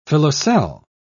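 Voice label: "filoselle", British English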